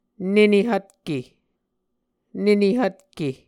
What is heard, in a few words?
"nene hvtke", Muscogee